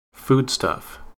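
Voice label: "foodstuff" US English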